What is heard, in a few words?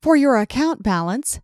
IVR, Acct Bal